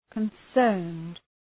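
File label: "concerned", English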